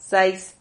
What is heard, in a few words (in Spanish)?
"sais", silabas